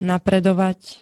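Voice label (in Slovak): "napredovať", Slovak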